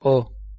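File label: "o", Spanish pronunciation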